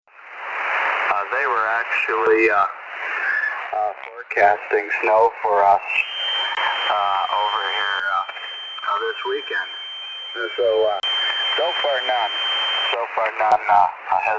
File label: 40m SSB